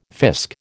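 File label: "fisk", American English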